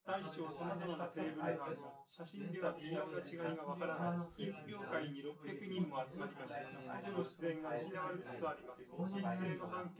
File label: male synth conv sim; SiSEC10; underdetermined